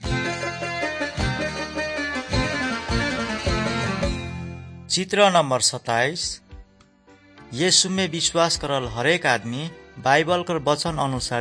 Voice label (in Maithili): good news maithili, nt drama